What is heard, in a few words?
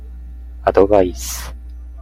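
"advice", Japanese, male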